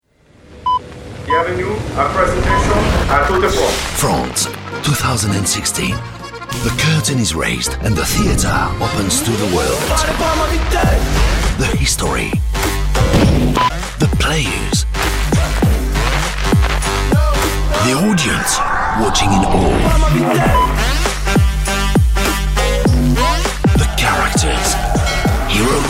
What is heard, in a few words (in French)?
promo en Anglais; ITV Tour de France